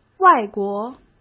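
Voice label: "外国", Mandarin Chinese; hsk; chinese-flashcards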